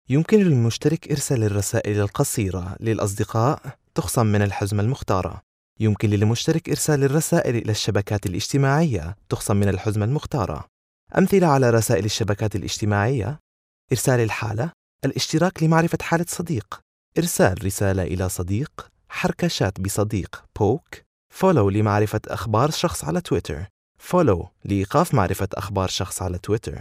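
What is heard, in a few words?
Arabic; Jordan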